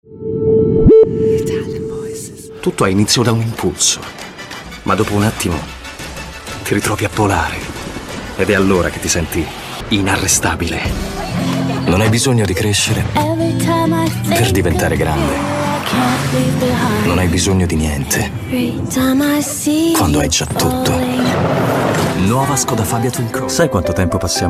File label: Intense Rich, Male Voices, ITALIAN VOICES